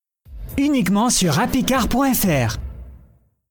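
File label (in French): Slogan publicitaire Voix médium jeune Ton très souriant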